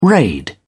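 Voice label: "raid", British English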